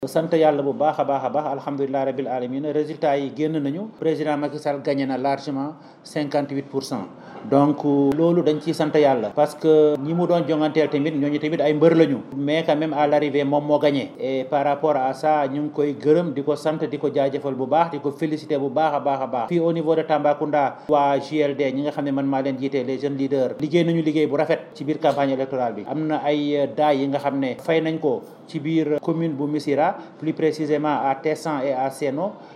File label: WOLOF; La proclamation des résultats du scrutin du 24 février dernier, donnant à Macky Sall 58,27